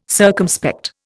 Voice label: "circumspect", Indian

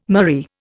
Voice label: "murrey", Indian

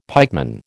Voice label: "pikeman", American

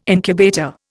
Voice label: "incubator", Indian

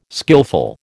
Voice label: "skillful", American

